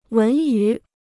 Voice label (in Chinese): "文娱", Chinese